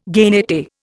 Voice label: "genette", Indian